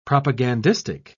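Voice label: "propagandistic", British English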